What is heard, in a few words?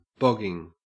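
"bogging", Australian English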